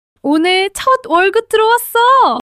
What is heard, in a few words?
excitement, monologue